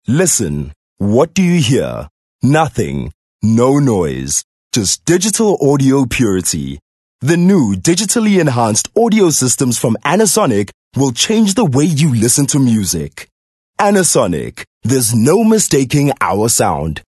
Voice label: English intimate